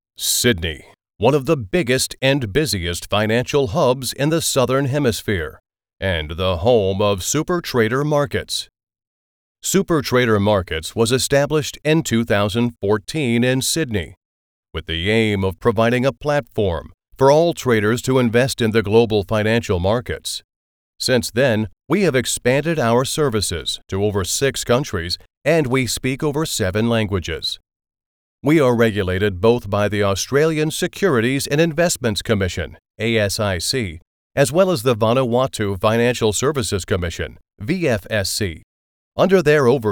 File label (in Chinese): 企业宣传片; supertrader; 蓝黑士